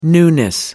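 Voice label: "newness", British English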